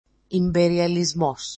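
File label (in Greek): "imberializmos", Greek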